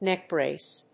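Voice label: "neck brace", English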